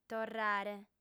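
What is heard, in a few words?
"torrare", Sardinian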